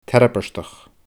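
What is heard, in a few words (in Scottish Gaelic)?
"tairbeartach", Scottish Gaelic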